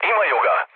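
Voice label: Serbian aircraft destroyed mood low, speech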